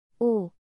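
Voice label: "ㅗ", Korean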